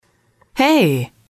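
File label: "hey", English, female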